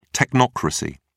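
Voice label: "technocracy", British English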